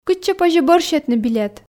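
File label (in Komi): кытчӧ позьӧ бӧр сетны билет, Komi, female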